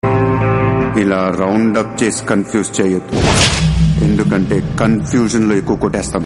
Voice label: Climax Dialogue, Telugu; Businessman; Mahesh

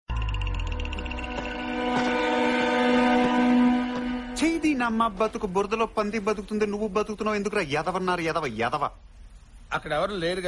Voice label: Dialogue Telugu Ringtone; Khaleja; Mahesh Babu

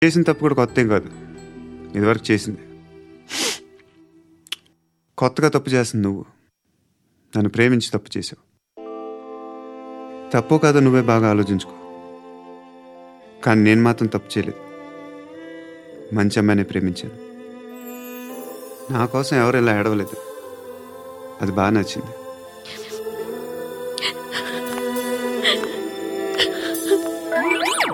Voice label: Dialogue Telugu Ringtone; Pokiri; Mahesh Babu

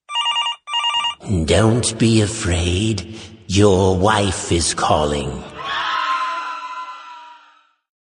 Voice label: Scary Wife Call Ringtone

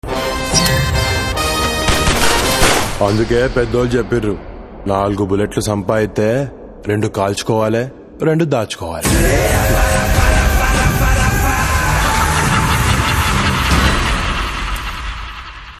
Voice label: Dialogue Telugu Ringtone; Valmiki; Varun Tej

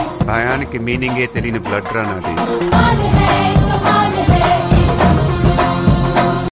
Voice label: Dialogue, Telugu; Dookudu

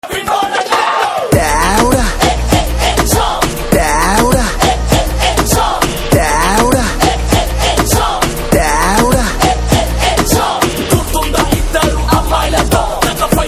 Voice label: RAP Song Dialogue, Telugu

